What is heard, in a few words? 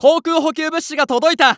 Announce Air Supply Drop; Eternal Return